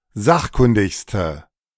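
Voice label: "sachkundigste", German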